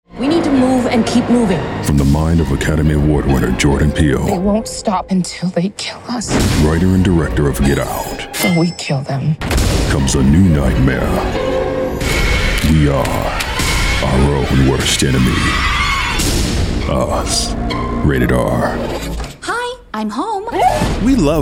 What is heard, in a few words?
Trailers English